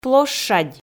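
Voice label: "площадь", Komi, female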